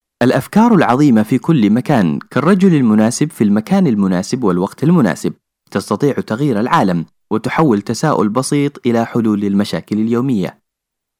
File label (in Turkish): Arapça